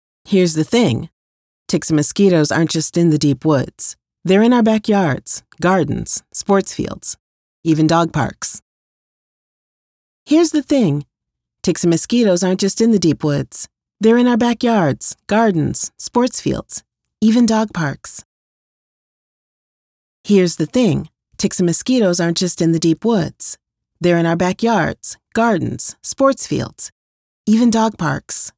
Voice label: conversational